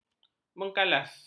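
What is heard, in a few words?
"mengkalas", Malay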